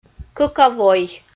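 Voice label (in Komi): "кӧка вой", Komi, female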